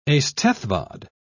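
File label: "eisteddfod", British English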